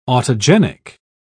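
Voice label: "autogenic", British English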